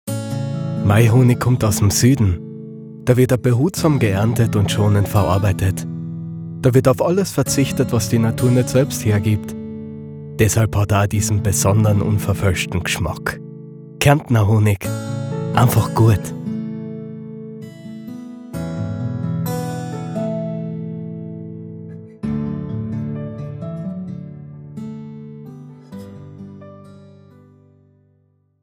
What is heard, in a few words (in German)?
Sprechprobe WERBUNG; KAERNTNER HONIG